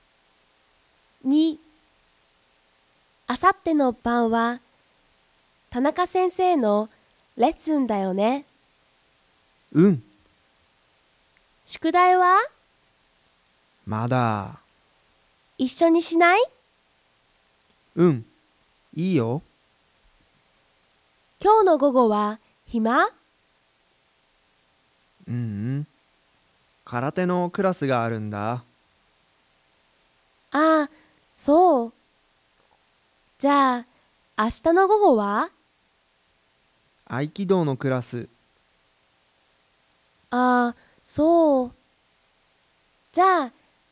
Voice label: Conversation